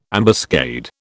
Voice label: "ambuscade", British